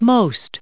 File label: "most", us